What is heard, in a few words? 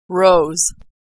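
"rose", American English; verb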